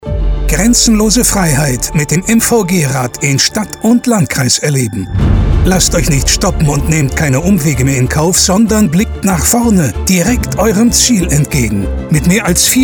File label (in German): radiospot; mvg rad